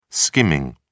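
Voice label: "skimming", British English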